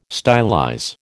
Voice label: "stylize", American